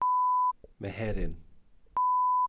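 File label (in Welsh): "meheryn", Welsh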